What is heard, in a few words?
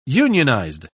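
"unionized", British English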